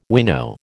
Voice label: "winnow", American

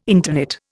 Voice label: "interknit", Indian

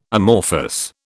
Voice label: "amorphous", British

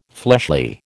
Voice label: "fleshly", American

